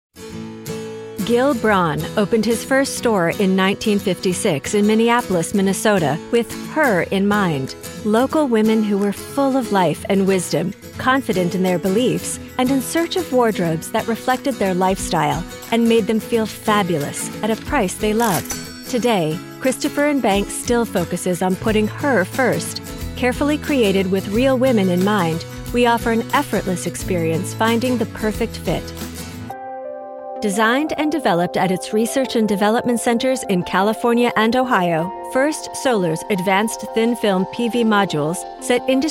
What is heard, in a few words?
english american explainer videos